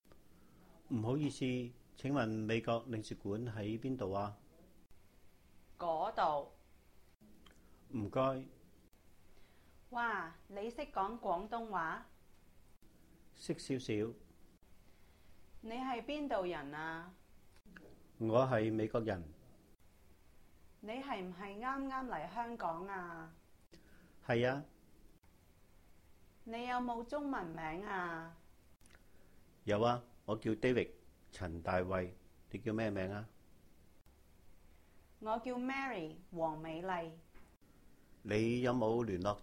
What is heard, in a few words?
Dialog, Cantonese; FAST L2 Making New Friends; sīk sān-pàhng-yáuh 識新朋友 sik1 san1 pang4 jau5